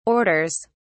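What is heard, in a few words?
"orders", English, British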